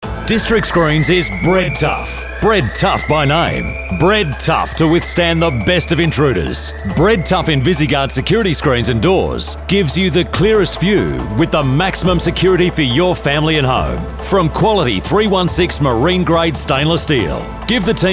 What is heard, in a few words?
Radio Campaign; Bred Tough